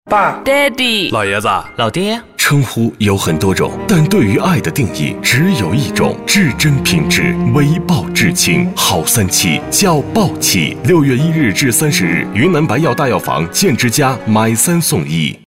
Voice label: 男 广告; 云南白药豹七三七节C

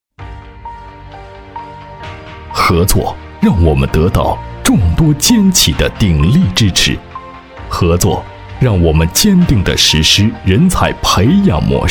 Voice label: 男, 专题; 金盾学院

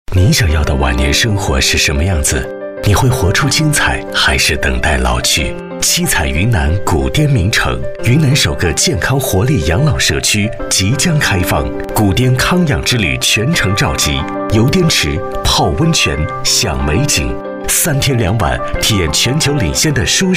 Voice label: 男-专题-旅游宣; 古滇名城

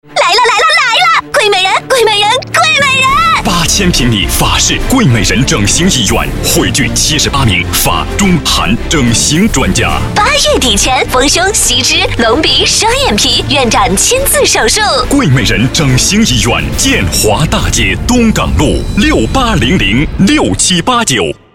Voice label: 男 广告

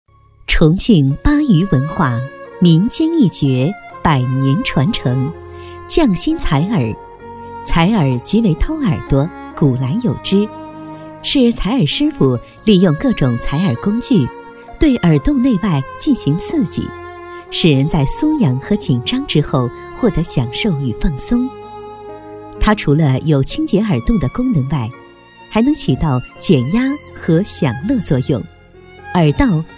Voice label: 女 专题 巴渝文化采耳

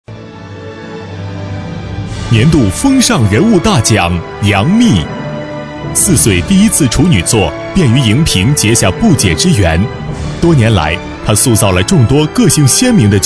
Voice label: 男 颁奖; 年度风尚大奖

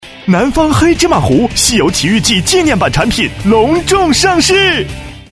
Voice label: 男 广告

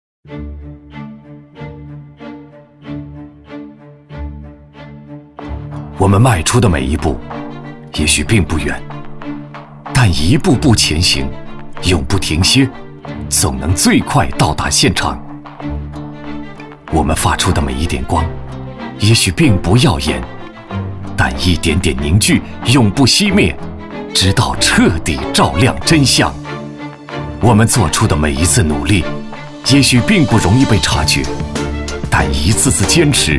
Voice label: 男 片花 电台片头

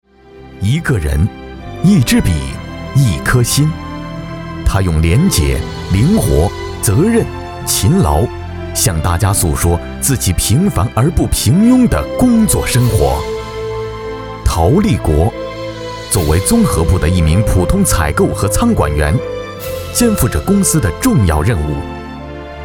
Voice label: 男 专题 人物解说 感动人物; 一个人

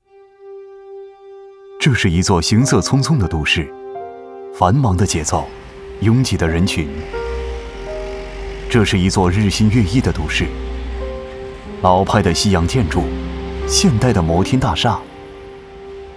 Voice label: 男 旁白 电影旁白

